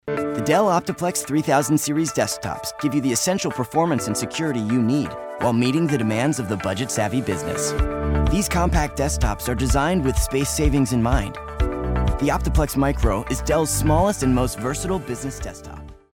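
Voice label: English US, male, narration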